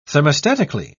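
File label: "thermostatically", British English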